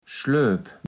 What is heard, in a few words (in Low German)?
"Schloep", platt